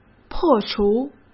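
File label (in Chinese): "破除", Mandarin Chinese; chinese-flashcards; hsk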